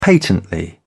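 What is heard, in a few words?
"patently", British English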